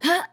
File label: jump, RPG Voice; RPG Voice Starter Pack